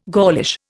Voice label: "Gaulish", Indian